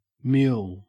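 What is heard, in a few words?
"mule", Australian English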